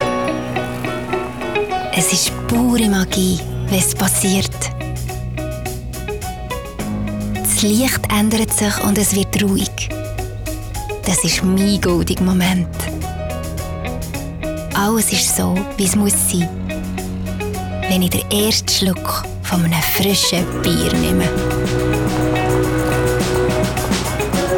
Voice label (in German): Werbung CH BE